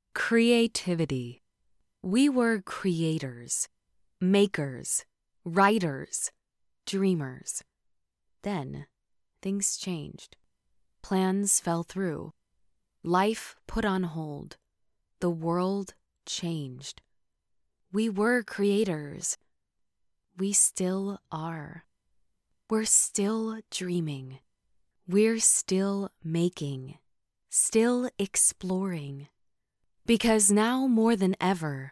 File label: Digital Commerical VO